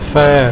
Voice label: "ver", Afrikaans

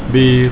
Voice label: "bier", Afrikaans

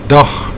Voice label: "dag", Afrikaans

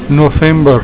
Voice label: "november", Afrikaans

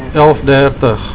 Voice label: "elf dertig", Afrikaans

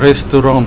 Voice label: "restourant", Afrikaans

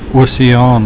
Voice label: "oseaan", Afrikaans

